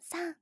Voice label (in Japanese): 畳み掛けカウント